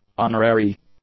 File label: "honorary", English; adjectives